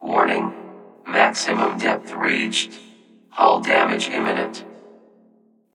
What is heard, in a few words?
AI Depth Warning